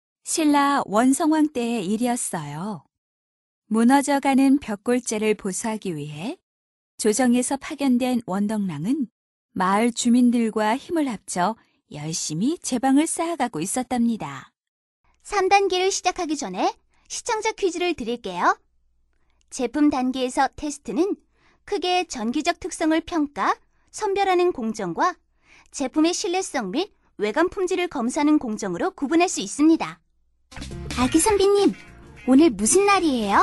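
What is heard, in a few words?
Korean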